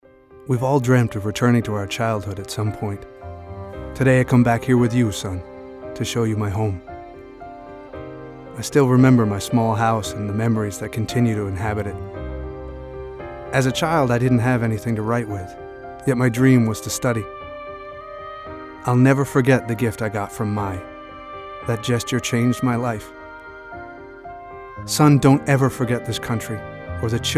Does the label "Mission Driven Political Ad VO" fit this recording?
No